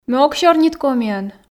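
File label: Komi, female; ме ог сёрнит комиӧн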